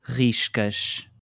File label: "riscas", portuguese